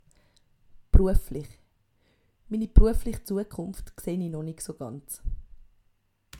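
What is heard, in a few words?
"brueflich", Swiss German vocabulary; Kapitel 2 Zusatzwortschatz 2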